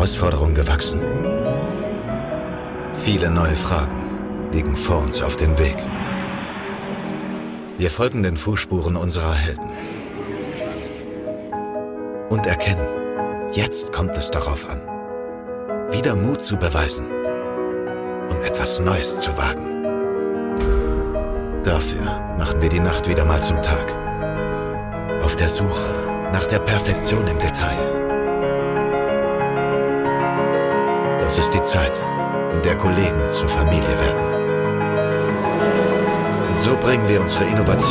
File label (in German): Imagefilm über Nachhaltigkeit, Off, German; Porsche Deutschland